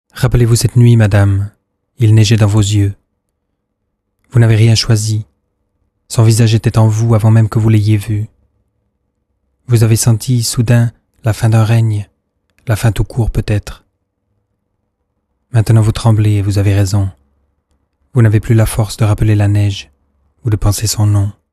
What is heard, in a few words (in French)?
francais